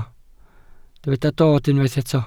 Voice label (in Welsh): "dod", Welsh